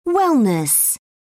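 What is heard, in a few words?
"wellness", British English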